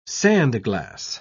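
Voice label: "sandglass", British English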